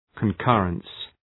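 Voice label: "concurrence", English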